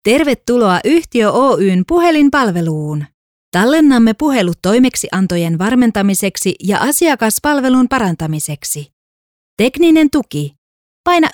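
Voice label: Finnish IVR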